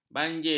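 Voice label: "banjir", Malay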